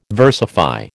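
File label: "versify", American English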